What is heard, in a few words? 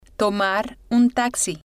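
tomar Un Taxi, Spanish, female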